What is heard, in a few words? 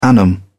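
"annum", British English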